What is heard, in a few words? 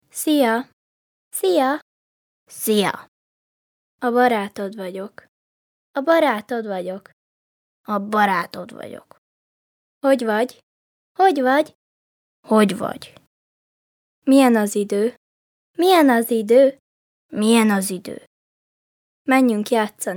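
hu child, Hungarian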